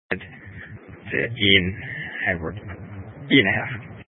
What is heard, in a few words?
Sheriff Dispatch, scanner